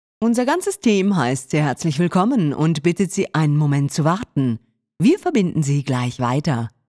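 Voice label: German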